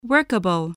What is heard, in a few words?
"workable", voice, english